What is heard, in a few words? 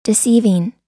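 "deceiving", US English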